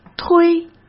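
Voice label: "推", Mandarin Chinese; chinese-flashcards; hsk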